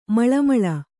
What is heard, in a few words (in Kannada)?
"ಮಳಮಳ", Kannada